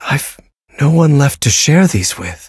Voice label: levelup, en; cookie run